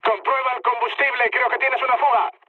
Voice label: tank damaged, mood med, speech; War Thunder